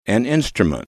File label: "an instrument", English